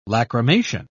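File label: "lacrimation", British English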